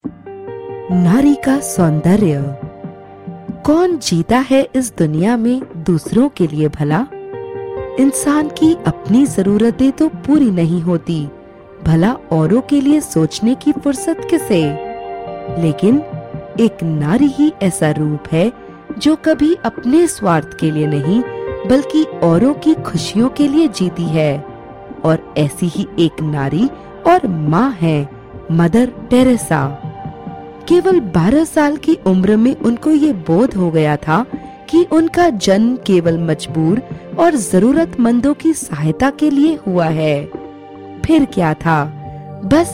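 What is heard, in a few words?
narration hindi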